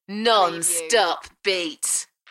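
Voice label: British female Non-stop beats; beanstalk audio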